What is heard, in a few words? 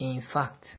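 "in fact", Nigerian Pidgin, male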